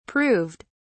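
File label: "proved", British English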